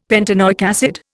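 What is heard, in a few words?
"pentanoic acid", Indian English; Dictionary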